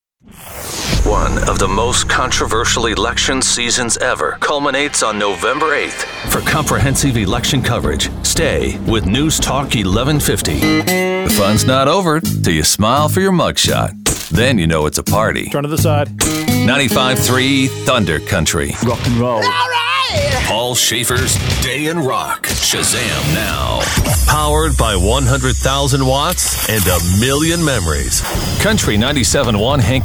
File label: RadioImaging